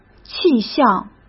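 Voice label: "气象", Mandarin Chinese; chinese flashcards; hsk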